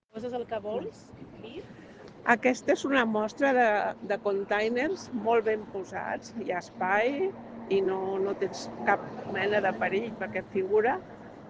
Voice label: Voice message